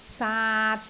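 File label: "saat3", Cantonese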